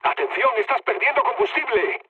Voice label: tank damaged, mood low, speech; War Thunder

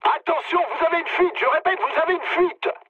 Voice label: damaged water mood med, speech fr; War Thunder

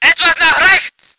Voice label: voice ger vehicle

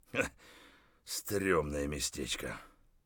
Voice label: mutter; Escape from Tarkov

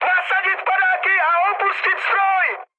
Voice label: bailout, speech cze, mood high; War Thunder

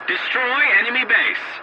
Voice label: voice message attack enemy base, english

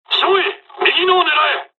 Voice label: dialog, dialogs japanese; us midway; War Thunder

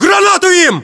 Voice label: order action grenade, ru; localized english

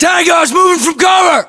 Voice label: threat infantry exposed, US; localized english; battlechatter

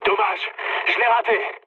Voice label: bomb failure mood med, speech fr; War Thunder

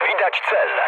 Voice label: near waypoint mood low, speech pol; War Thunder

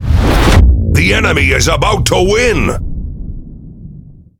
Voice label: Pirate Speech Domination Enemy Close Win; World Of Warships

